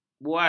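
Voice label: "bual", Malay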